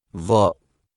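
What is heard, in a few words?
"Dhaa", Arabic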